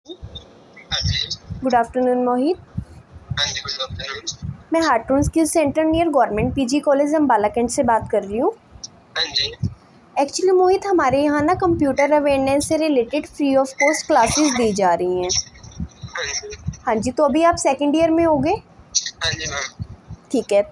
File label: call recording